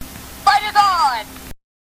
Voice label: Pit Wiimote Sound